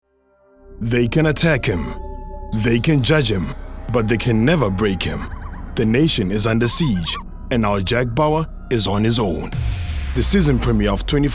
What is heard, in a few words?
English South African, Male